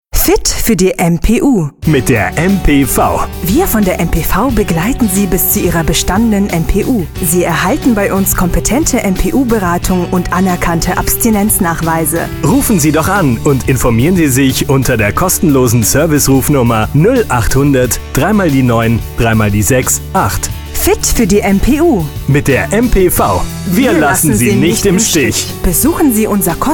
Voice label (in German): Radiospot; MPV; Andre-Media